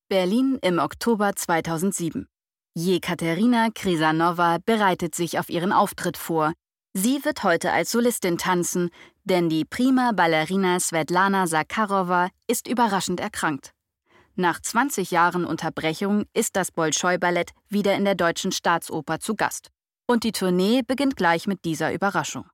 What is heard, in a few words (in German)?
Dokumentation; LOFT VOICES